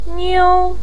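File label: "niu1", Mandarin pinyin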